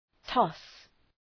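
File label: "toss", English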